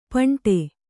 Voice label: "ಪಿಂಡ", Kannada

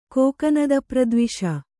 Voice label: "ಕೋಕನದಪ್ರದ್ವಿಷ", Kannada; kōkanada pradviṣa; noun; = ಕೋಕನದವೈರಿ - 1.

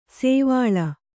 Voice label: "ಸೋವಳಿ", kannada

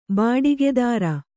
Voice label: "ಬಾಡಿಗೆದಾರ", Kannada

